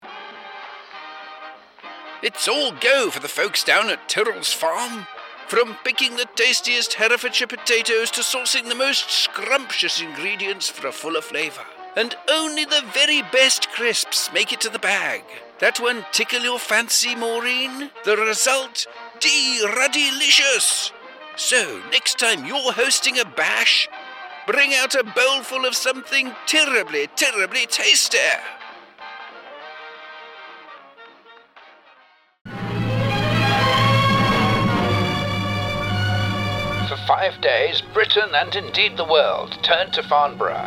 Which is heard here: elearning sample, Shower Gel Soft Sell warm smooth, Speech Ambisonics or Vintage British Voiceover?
Vintage British Voiceover